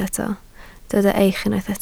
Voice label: "eich", Welsh

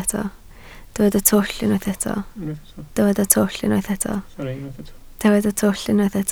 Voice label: "twll", Welsh

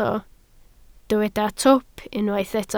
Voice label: "twp", Welsh

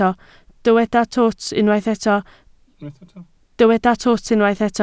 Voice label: "twt", Welsh

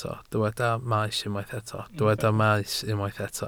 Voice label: "maes", Welsh

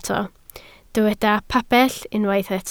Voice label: "pabell", Welsh

